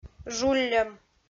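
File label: "жулльӧм", Komi, female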